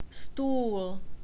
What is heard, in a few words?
"stul", Czech long vowels